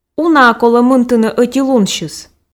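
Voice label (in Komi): уна-ӧ колӧ мынтыны ӧти лунсьыс, Komi, female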